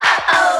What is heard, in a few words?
"uh oh", cartoon; ooh ahh; uh um